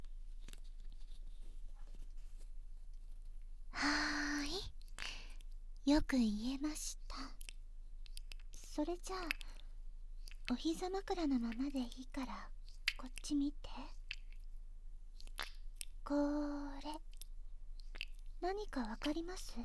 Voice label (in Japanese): 誘惑アロママッサージ♪; 特別なご褒美